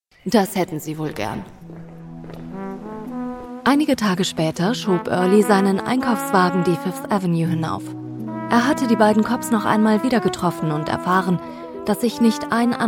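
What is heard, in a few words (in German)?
Hörspielmix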